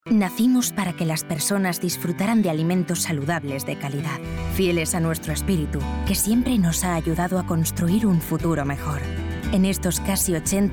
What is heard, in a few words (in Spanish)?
Publicidad castellano y valenciano